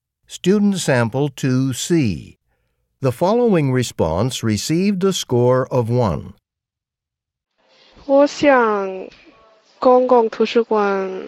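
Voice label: chinese conversation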